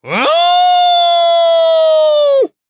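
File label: level up, Japanese; cookie run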